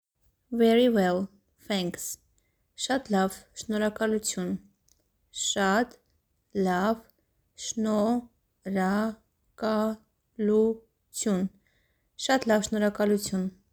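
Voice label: English "Very well thanks", Armenian "Shat lav Shnorhakalutyun"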